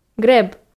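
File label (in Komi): "греб", Komi, female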